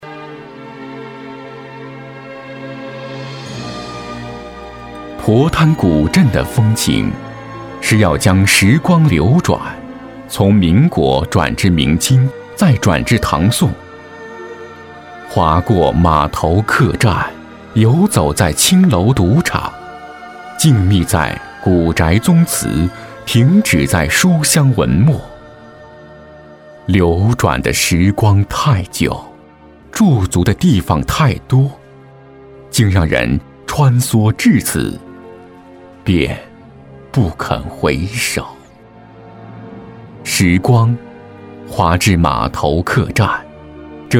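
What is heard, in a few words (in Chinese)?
国语 男声 专题片 解说 沉稳 大气浑厚磁性 沉稳; 皤滩古镇解说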